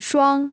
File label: "双", china